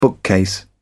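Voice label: "bookcase", British English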